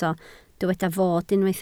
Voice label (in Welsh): "fod", Welsh